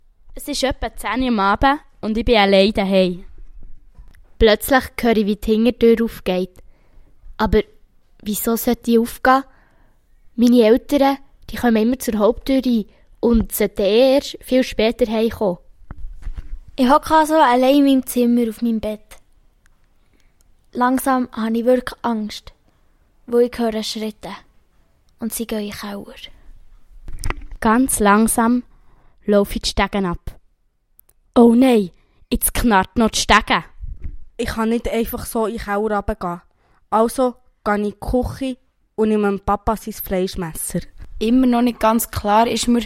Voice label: Hoerspiel; Grosi; Muensingen

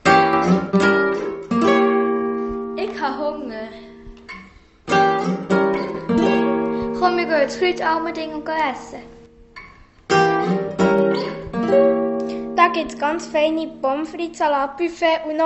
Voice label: Werbejingle Restaurant; Kreuz; Allmendingen

